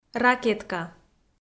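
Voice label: "ракетка", Komi, female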